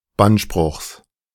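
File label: "Bannspruchs", German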